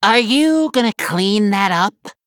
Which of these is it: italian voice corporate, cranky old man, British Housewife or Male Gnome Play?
Male Gnome Play